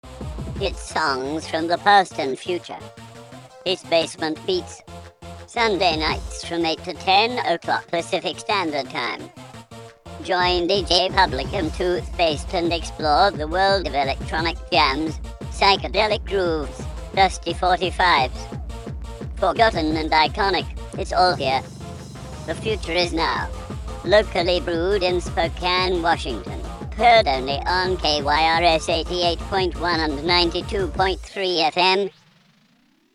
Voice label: PROMO; Basement Beats